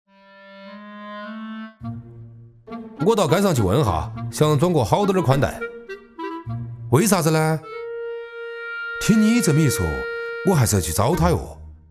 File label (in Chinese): 方言 四川 生活 移动宽带